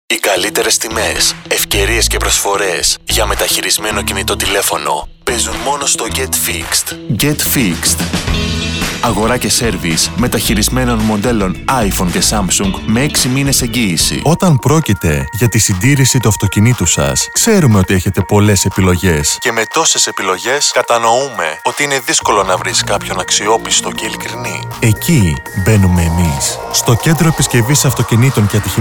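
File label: Corporate, Greek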